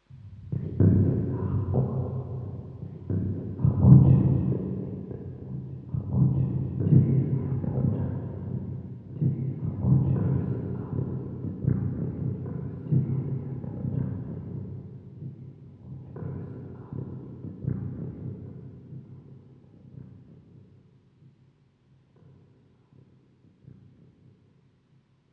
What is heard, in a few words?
whispers